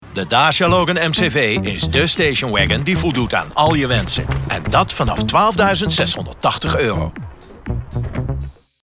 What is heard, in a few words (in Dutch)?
Commercial